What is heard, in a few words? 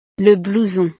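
"le blouson", French; ropa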